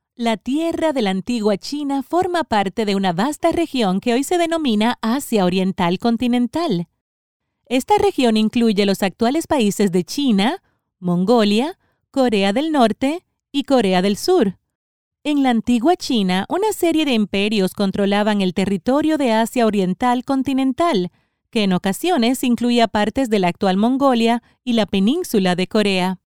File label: spanish latin american documentaries; espagnole neutre - latine